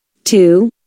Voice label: "2", usa